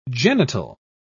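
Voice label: "genital", British English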